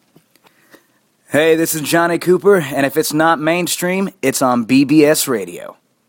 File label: bbs radio promo